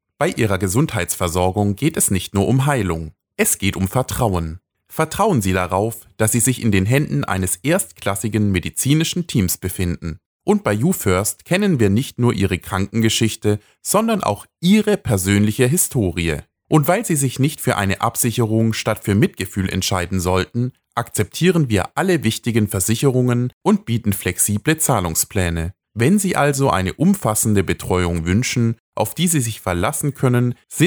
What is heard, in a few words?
Sincere